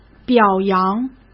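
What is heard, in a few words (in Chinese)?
"表扬", Mandarin Chinese; hsk; chinese-flashcards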